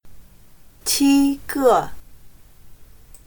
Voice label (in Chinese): "七个", chino